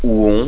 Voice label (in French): "uon", voyelles nasal